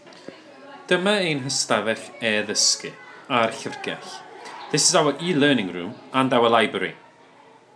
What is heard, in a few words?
elearning room